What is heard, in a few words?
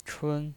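"chun", Mandarin Chinese pinyin voice, first tone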